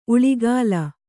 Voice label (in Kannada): "ಉಳಿಗಾಲ", Kannada